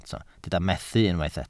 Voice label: "methu", Welsh